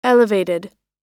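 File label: "elevated", American English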